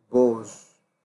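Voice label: "bozz", Nigerian Pidgin, male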